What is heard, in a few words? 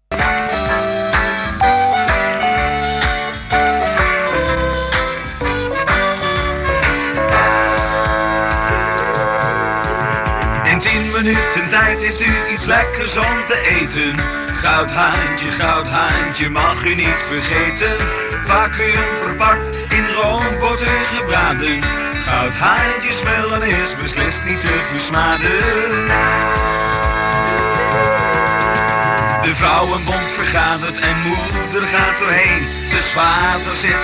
commercial